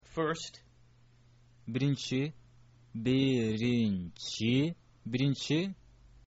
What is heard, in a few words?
first, kyrgyz